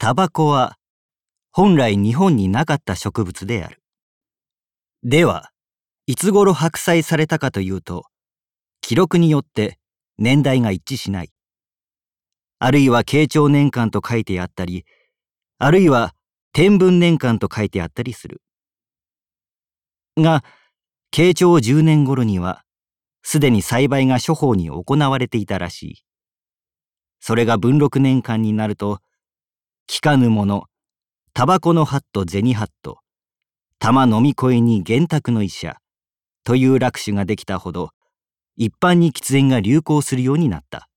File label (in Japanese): ナレーション